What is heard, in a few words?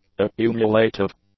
"accumulative", English; adjectives